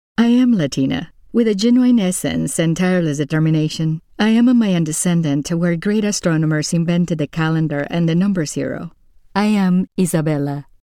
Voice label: women mini demo

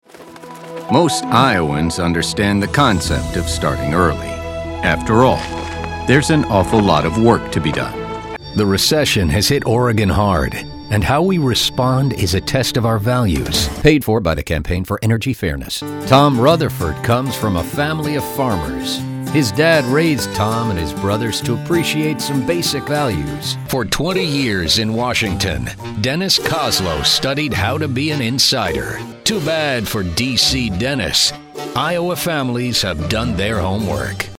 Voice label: men political

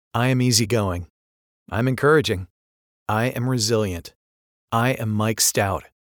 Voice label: men mini demo

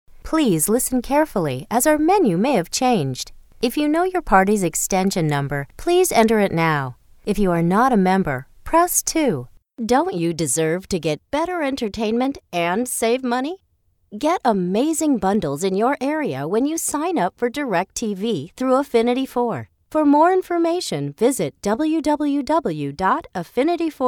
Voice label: women telephone

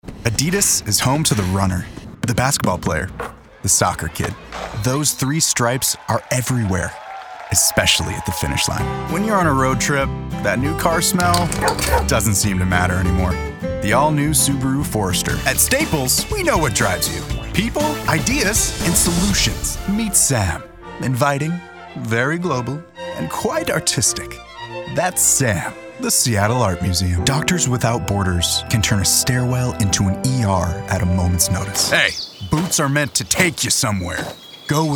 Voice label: men commercial; Youthful, charismatic, and confident, with a sharp comedic edge.

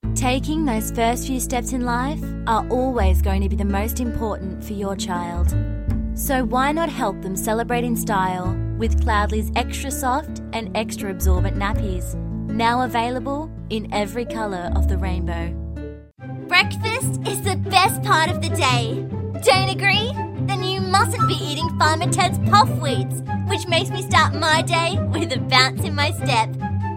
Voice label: australian commercial; Light and bubbly Australian voice.

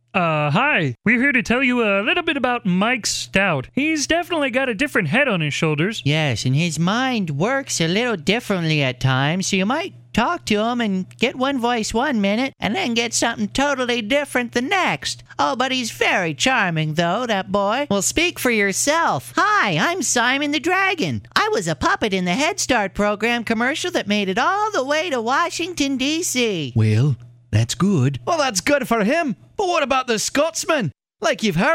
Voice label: men animation; Friendly guy next door. The one you can trust to water your plants!

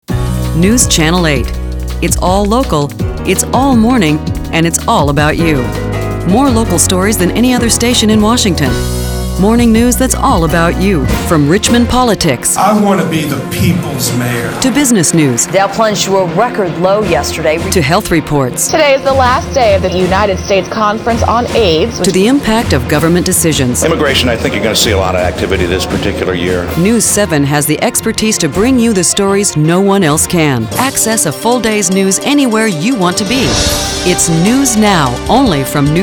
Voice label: women tv affiliate

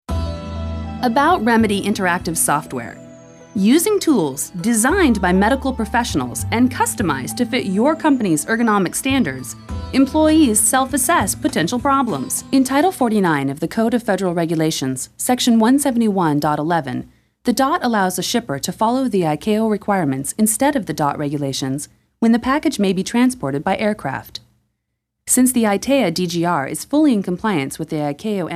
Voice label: women narration

